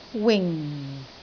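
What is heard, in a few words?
"wing4", Cantonese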